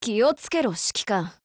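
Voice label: HELLO Japanese, Voice; GF Alarm; M6ASW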